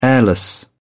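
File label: "airless", British English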